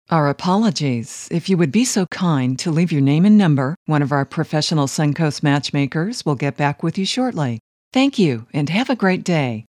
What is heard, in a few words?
Phone Greeting